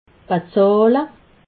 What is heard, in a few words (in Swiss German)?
"bezahle", alsace